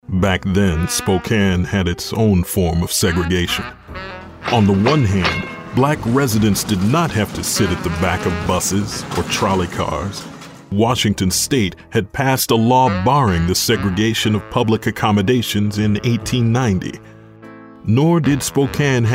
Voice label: Narration